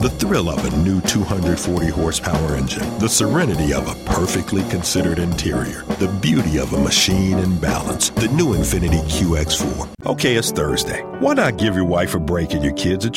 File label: Commercial